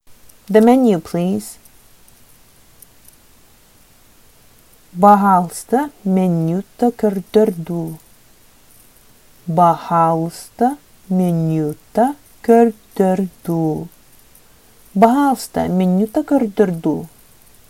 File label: Sakha The menu please